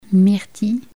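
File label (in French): "myrtille" French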